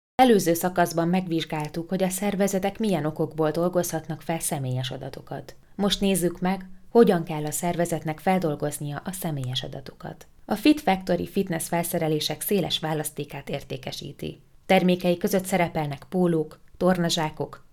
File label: Female, Hungarian